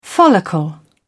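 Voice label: "follicle", British English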